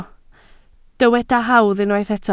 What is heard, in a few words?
"hawdd", Welsh